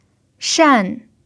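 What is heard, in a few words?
"shan" fourth tone, chinese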